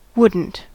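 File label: "wouldn't", American English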